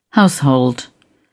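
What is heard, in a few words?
"household", English, British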